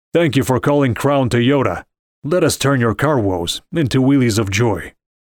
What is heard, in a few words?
russian ivr